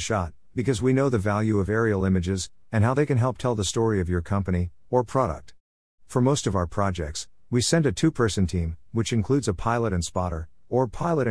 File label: drone service voice over